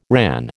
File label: "RAN", American